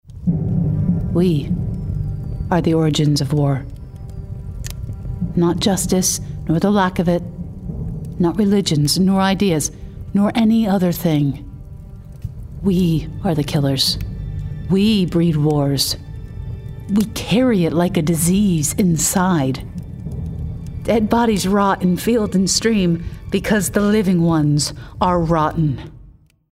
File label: GAMING StrongLeader